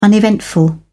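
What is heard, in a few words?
"uneventful", British English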